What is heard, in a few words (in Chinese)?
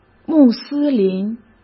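"穆斯林", Mandarin Chinese; hsk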